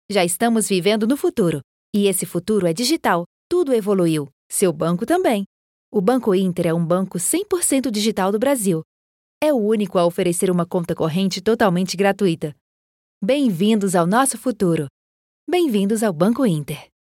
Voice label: brazilian voice corporate

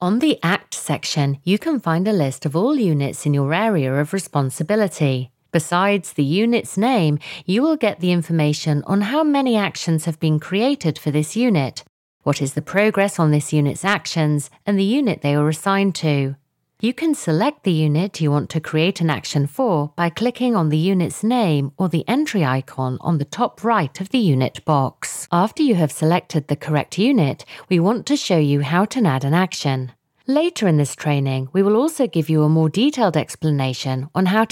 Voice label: explainer

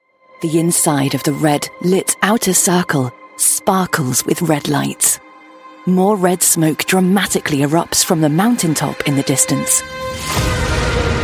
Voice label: audio description

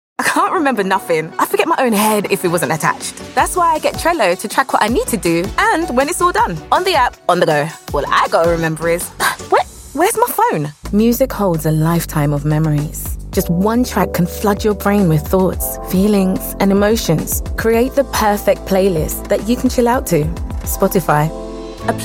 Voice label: commercial

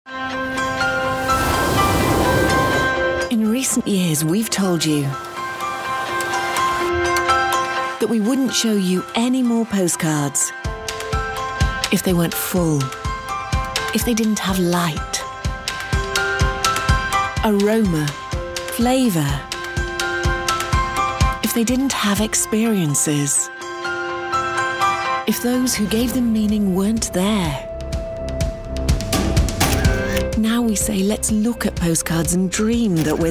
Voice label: brand portfolio, Clear Upmarket Voiceover

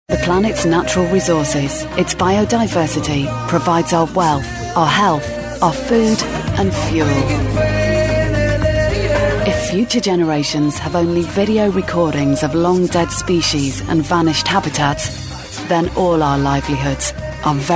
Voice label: documentary narration

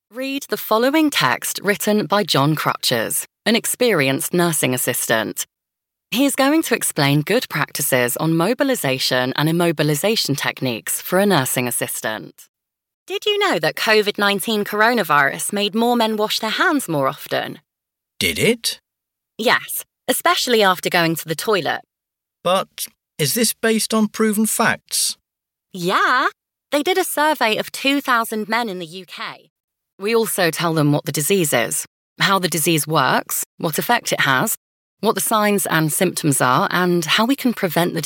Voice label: natural

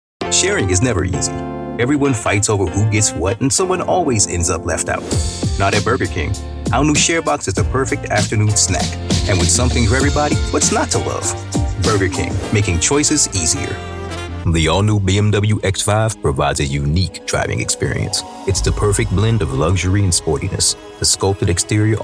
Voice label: American voiceover commercial

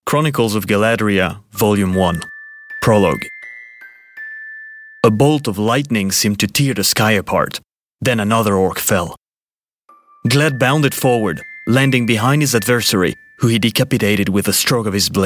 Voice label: french male audiobook en

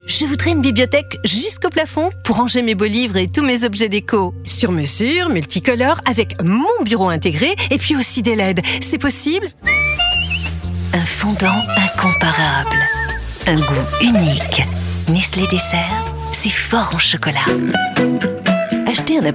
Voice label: French announcer commercial

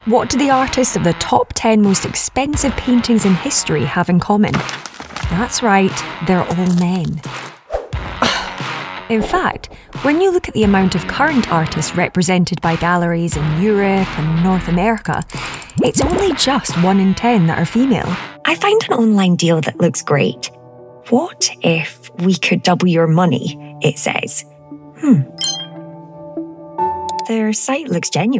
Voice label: explainer

